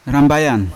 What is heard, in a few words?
"rambayan" sell, Yawa